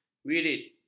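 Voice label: "wirid", Malay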